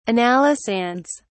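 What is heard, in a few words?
"analysands", British English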